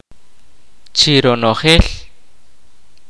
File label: kaqchikel; total